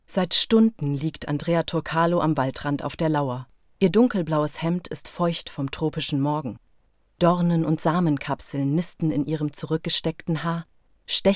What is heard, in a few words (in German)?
Reportage